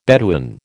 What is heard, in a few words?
"Bedouin", American